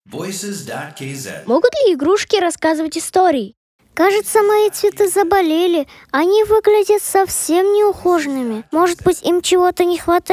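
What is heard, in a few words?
kid, Russian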